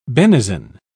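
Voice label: "benison", English, British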